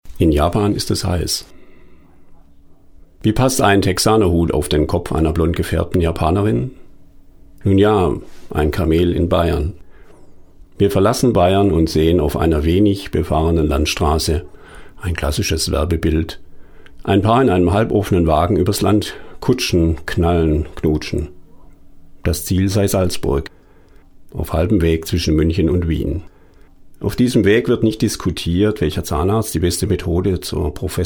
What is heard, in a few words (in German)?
Hörbuch; Japan